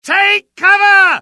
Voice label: alert, UK; dialogue; mohaa

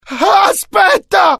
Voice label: fear, IT; mohaa; dialogue

